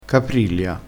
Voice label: "caprilia", crespinese

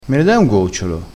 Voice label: "gocciolo", crespinese

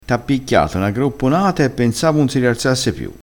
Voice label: "gropponata", dialettale crespinese

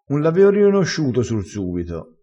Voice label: "sur subito", crespinese

